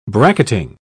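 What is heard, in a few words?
"bracketing", English, British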